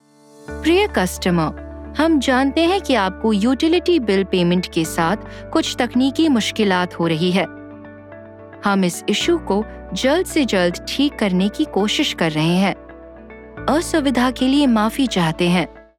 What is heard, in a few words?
IVR Hindi